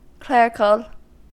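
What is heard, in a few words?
"clerical", US English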